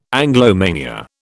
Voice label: "Anglomania", British